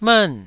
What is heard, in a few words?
"mern4", Taiwanese syllable